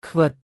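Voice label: "ខ្វិត", Khmer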